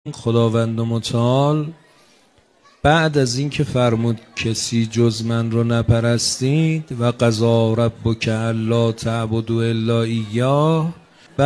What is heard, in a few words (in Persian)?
سخنرانی; شیخ اسماعیل رمضانی